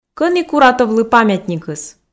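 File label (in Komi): кӧні куратовлы памятникыс, Komi, female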